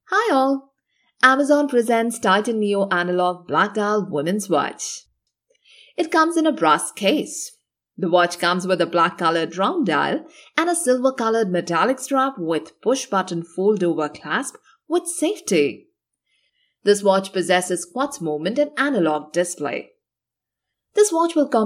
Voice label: English Commercial